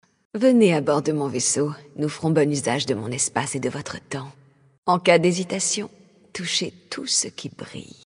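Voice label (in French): Female Flirt, voix françaises; Draenei Sancteforge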